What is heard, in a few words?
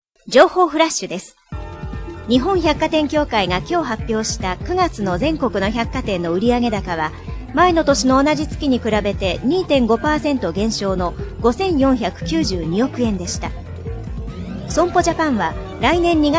news